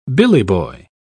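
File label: "billyboy", English, uk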